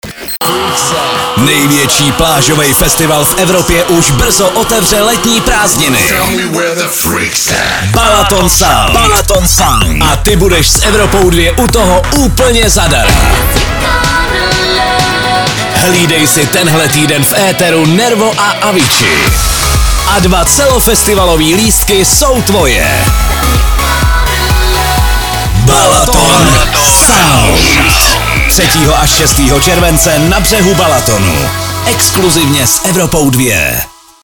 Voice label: liner; evropa2; balaton sound